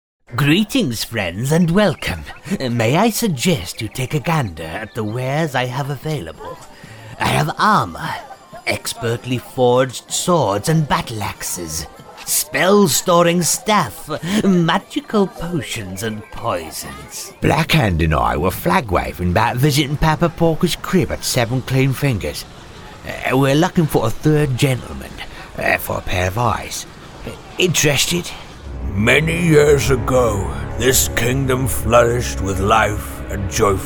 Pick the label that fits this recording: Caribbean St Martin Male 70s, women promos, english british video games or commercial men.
english british video games